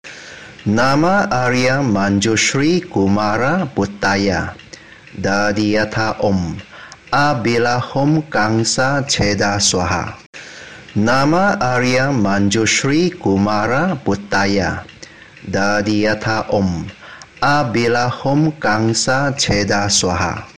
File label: Mantra; Manjusri